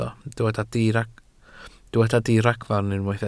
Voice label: "diragfarn" Welsh

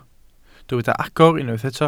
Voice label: "agor", Welsh

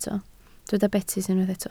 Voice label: "betys", Welsh

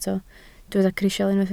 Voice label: "crisial", Welsh

